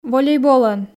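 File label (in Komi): "волейболӧн", Komi, female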